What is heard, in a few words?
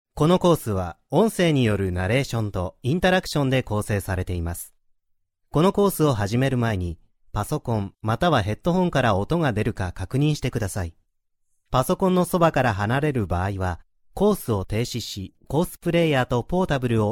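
Japanese, male, elearning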